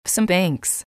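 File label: "some banks", English, female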